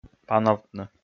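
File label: "пановтны", Komi, male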